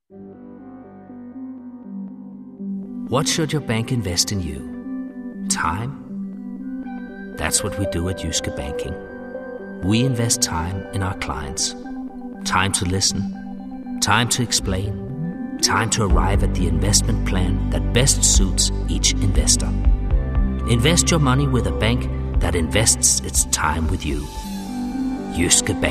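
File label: Danish English; Danish, Scandinavian, Male, Home Studio, 20s-30s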